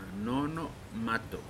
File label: "grandmother", Pingelapese